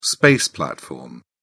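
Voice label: "space platform", English, British English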